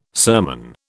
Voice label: "sermon", British

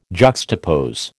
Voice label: "juxtapose", American

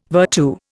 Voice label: "virtu", Indian

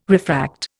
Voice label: "refract", Indian

